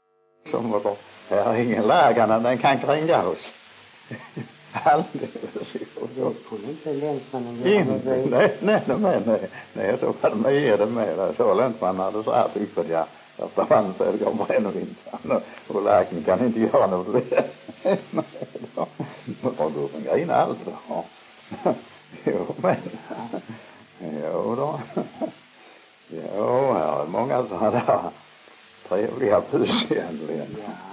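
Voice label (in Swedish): Munka-Ljungby Skåne, dialekter; Folkminnen; Svenska sagor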